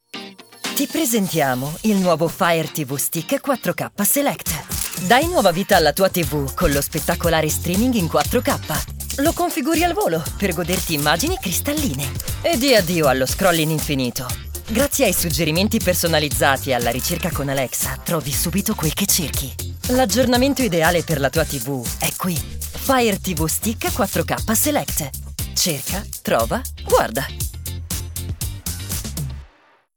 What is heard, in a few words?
italian promos, italian voice actors